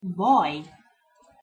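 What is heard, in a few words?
"vaj", Hungarian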